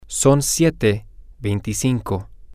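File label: its 725, Spanish, male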